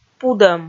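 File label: "пудӧм", Komi, female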